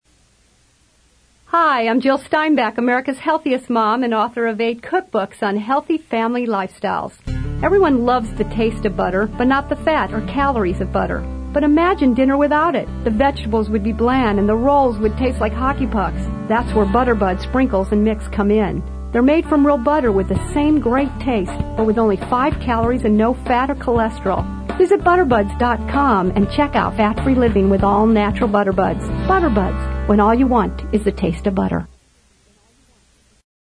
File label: radio spot